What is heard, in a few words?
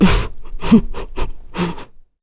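Vox Sad, English; Chrisha; kingsraid; voices heroes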